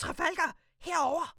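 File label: whisper; Voices; Quarry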